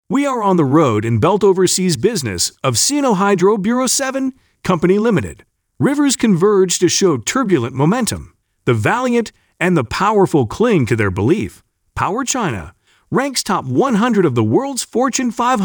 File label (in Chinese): 美式男宣传片